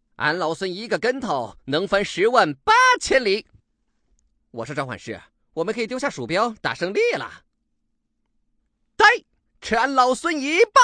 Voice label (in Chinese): 男 角色 孙悟空